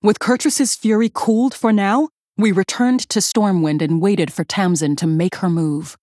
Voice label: Female Human; Cariel; Pre Mission 3